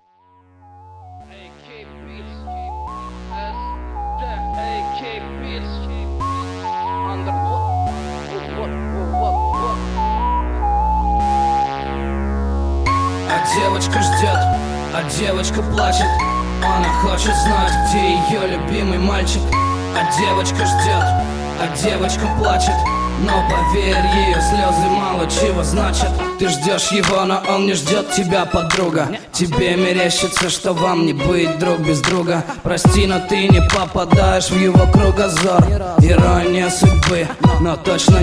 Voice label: alarm girl